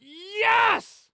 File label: Human Male Yes Excited Yes